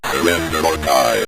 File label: surrender or die, synth voice; Kenney's Sound Pack